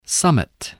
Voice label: "summit", spelling

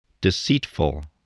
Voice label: "deceitful", English

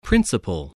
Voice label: "principal", voice, spelling

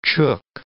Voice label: "chook", English

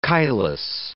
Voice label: "chylous", English

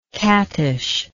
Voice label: "cattish", English

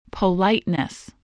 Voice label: "politeness", English

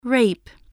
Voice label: "rape", english

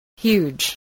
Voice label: "huge", voice spelling

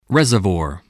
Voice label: "reservoir", English

